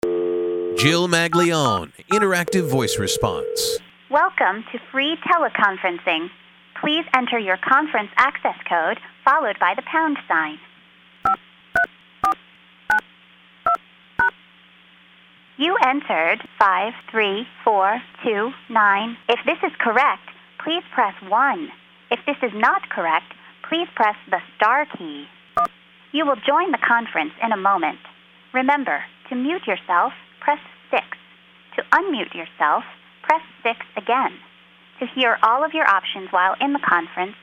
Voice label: IVR